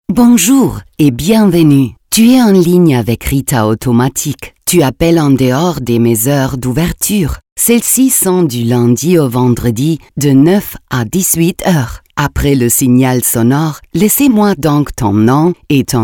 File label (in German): Telefonansage FR